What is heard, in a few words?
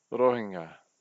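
"rohenga", Maori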